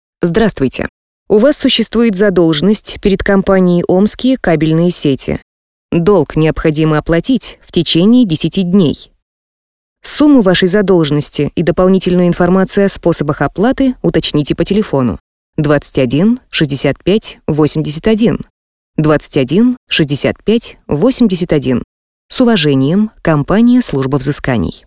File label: IVR